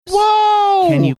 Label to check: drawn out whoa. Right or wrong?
Right